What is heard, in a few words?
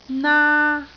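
"naa1", Cantonese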